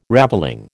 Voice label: "ravelling", American